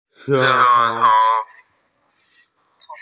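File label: "tongue", Mandarin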